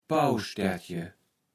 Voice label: "Pauwstärtje", Platt

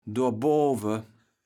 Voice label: "dorboave", kaevels platt

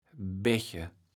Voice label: "Bäche", kaevels platt

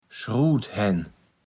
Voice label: "Schruuthenn", platt

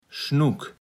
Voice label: "Schnuk", platt

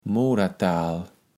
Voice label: "Modertaal", kaevels platt

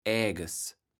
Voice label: "äges", kaevels platt

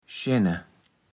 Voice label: "schenne", Platt

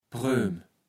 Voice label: "Preum", Platt

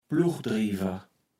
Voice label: "Pluggdriewer", Platt

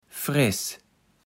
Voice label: "freß", kaevels platt

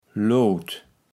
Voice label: "Loot", kaevels platt

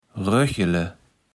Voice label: "Roechele", kaevels platt

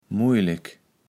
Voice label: "mujlek", kaevels platt

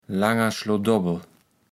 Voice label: "langer Schlodobbel", kaevels platt

